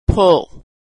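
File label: "pull", American English; vowel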